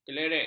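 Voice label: "kelerek", Malay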